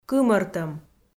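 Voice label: "кымӧртӧм", Komi, female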